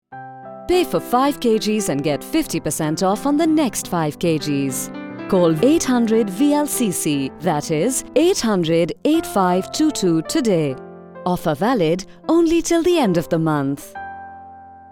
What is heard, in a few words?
Radio Spot; VLCC Dubai